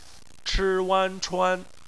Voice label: "chuan", Chinese; phonetics; bpmf